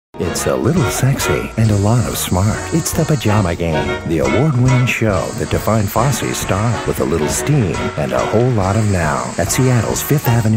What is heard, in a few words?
Alluring Compelling Promo